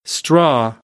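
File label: "straw", American, English; AmericanBritish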